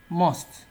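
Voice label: "must", Nigerian Pidgin, male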